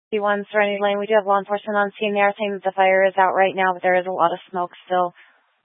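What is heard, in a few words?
Incident Tactical 2, Scanner audio recording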